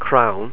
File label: "crann", ireland language pronounciation; Monophtongs